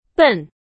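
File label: Chinese; hsk4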